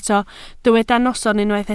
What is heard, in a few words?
"noson", Welsh